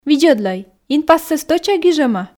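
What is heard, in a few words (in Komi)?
видзӧдлӧй инпассӧ стӧча гижӧма, Komi, female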